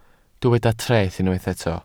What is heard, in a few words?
"treth", Welsh